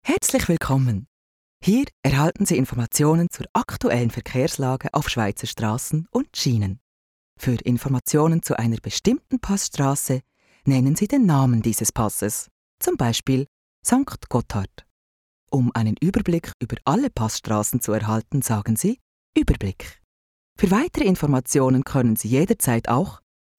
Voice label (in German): ch verkehrsansage, sprecher schweizerisch